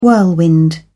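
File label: "whirlwind", British English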